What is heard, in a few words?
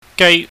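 "gai", Cantonese, high falling tone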